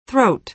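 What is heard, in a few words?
"throat", American English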